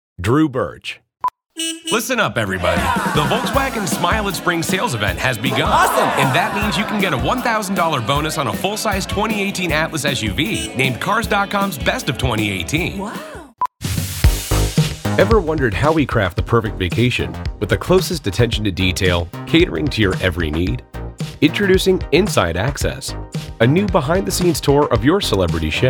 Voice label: Commercial